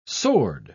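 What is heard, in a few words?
"sword", English pronunciation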